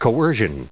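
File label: "coercion", US English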